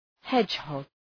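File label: "hedgehog", English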